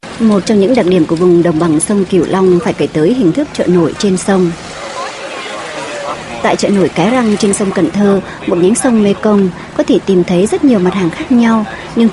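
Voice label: Vietnamese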